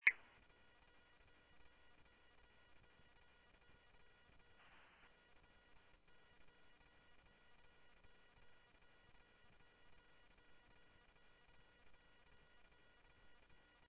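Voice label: Sheriff West County, scanner audio trunk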